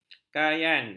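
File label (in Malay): "kayan", Malay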